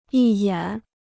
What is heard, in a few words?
"一眼", Chinese